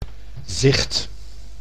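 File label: "zicht", Dutch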